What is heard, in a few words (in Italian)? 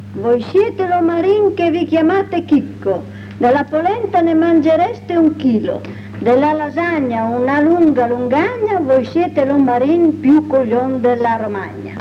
filastrocca; voi siete l'omarin che vi chiamate chicco